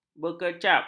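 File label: "berkecap", Malay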